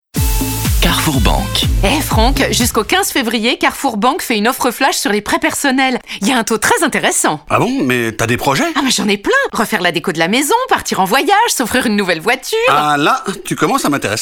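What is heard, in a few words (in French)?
informatif elegant, comedien voix off homme; Carrefour Banque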